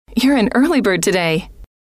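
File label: you Re An Early Bird Today, female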